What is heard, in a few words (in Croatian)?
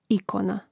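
"ikona", hrvatski; mreznik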